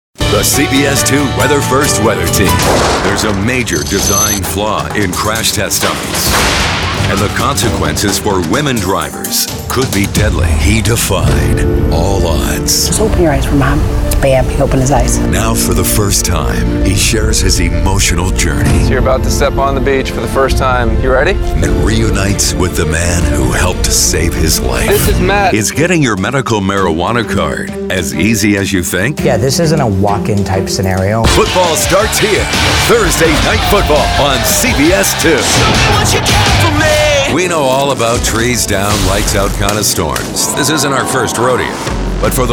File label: tv affiliate men